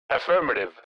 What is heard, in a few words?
Military radio voice "affirmative"